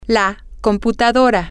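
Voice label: Spanish, female; the Computer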